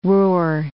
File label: "roar", English